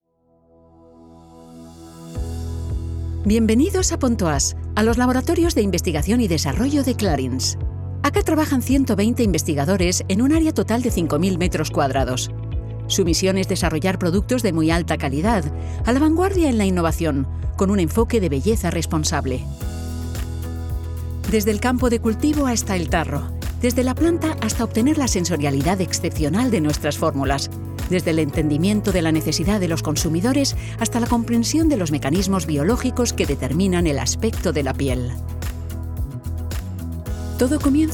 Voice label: VIDEO VISITORS SPANISH VO; CLARINS; PONTOISE